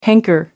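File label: "hanker", British English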